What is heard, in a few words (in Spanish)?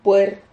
"puer", Silabas